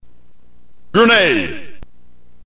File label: narrator